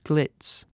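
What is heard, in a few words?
"glitz", British English; pronunciations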